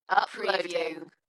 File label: UK female, "Uploading", Dalekness; beanstalk audio